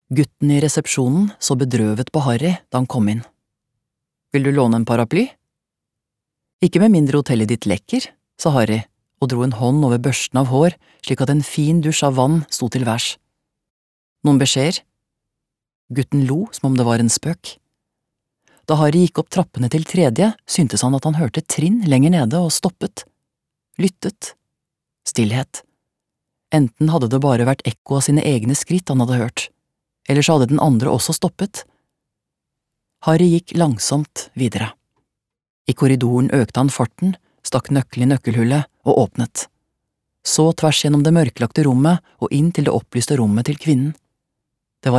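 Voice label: Norwegian audiobook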